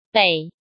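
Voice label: "Běi", Chinese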